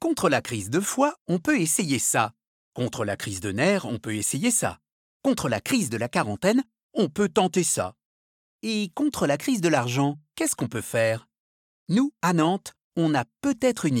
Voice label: institutionnel monnaie locale; NANTES

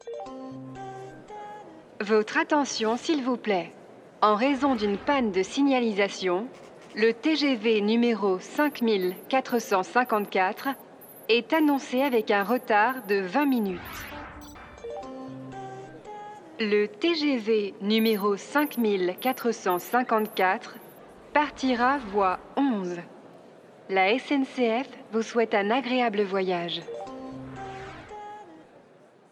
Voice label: ANNONCE; voix speciale; voix-off; SNCF

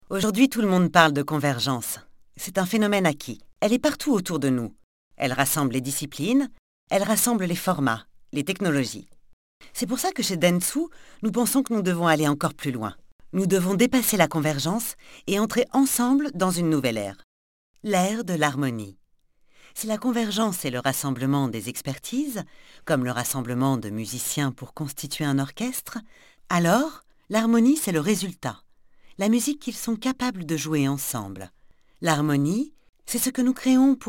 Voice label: Institutionnel; REPAS DES REGIES

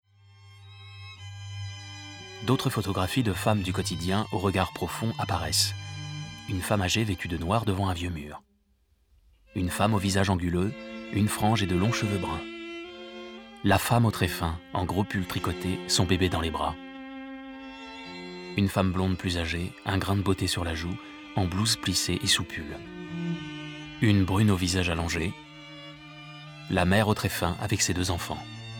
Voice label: Audiodescription

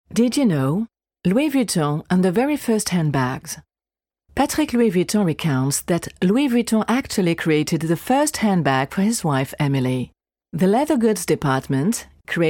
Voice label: anglais; VUITTON

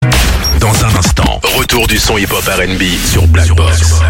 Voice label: Habillage TV Radio Teasing, voix-off; Blackbox

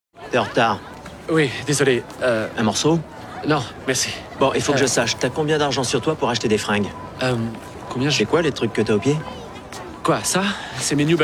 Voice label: Voix mechant

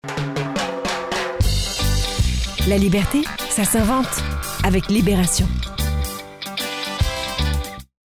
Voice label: souriant punchy; libé

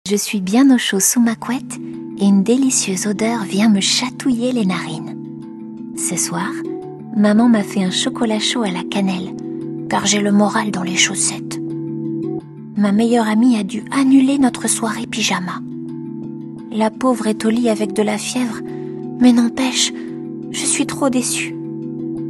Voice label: LIVRE AUDIO FR, univers enfant; voix-off; Milasouslesetoiles

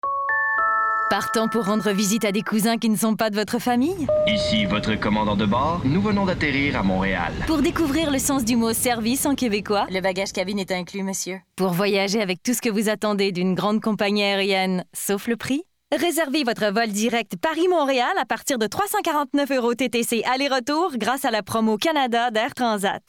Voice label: SPOT; AIRTRANSAT; PARIS

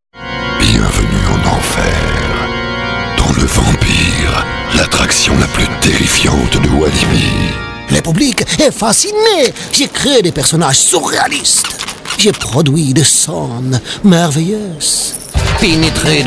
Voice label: Medley voix bizarres

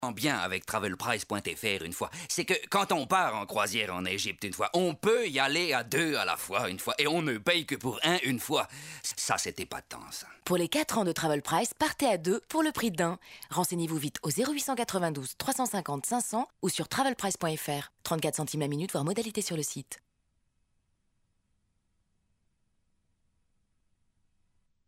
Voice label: en wallon; travelprice

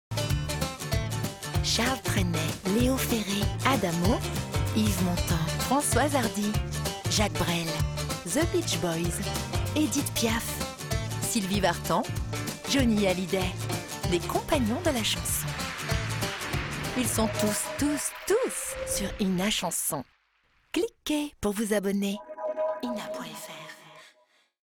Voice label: Spot TV; Ina chansons Old

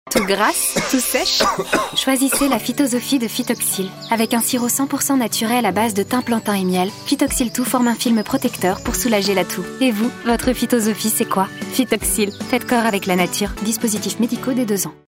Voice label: ADULTE; PHYTOXYL

